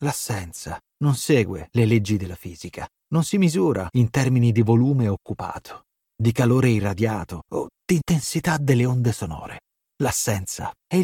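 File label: Italian, Audiobook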